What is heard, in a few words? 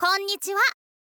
"konnichiha", girl